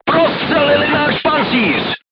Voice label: voice message player tank hit, crew tanks czech